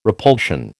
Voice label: "repulsion", American

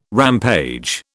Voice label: "rampage", British

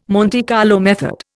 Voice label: "Monte Carlo method", Indian

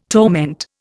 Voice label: "torment", Indian

